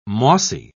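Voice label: "mossy", British English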